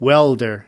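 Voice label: "welder", US English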